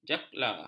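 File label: "jala", Malay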